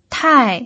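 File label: "tai", Mandarin Chinese pinyin, fourth tone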